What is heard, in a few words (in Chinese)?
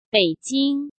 "北京", Chinese